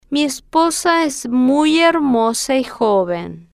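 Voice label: mi Esposa Es Muy Hermosa Y Joven, Spanish, female, slow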